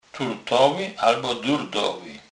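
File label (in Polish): "turtoly", Kurpie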